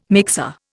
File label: "mixer", Indian